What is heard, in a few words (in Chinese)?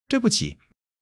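"对不起", Mandarin Chinese; HSK1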